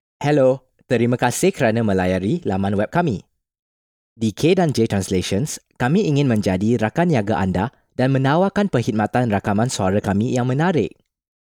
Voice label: malay male

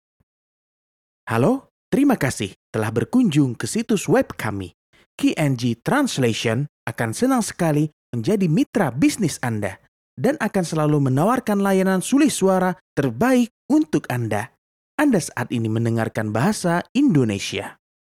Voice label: indonesian male

